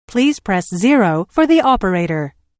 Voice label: Telephone prompt: Please press zero for the operator, Pre-recorded telephone on-hold message; Recorded Voice; Lynne Music